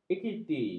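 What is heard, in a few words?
"ekuiti", Malay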